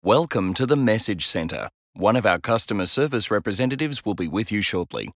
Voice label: welcome corporate male